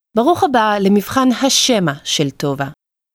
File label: Welcome Auditory, hebrew